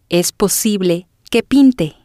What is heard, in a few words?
es posible que pinte, Spanish